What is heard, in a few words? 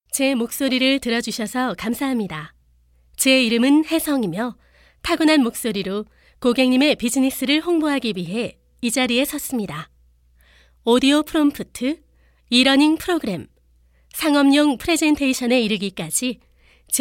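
Korean, female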